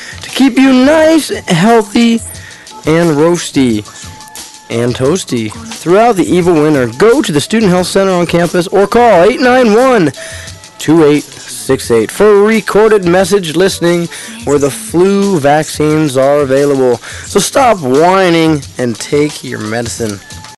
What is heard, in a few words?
PSA Flu Shot